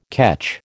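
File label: "catch", American English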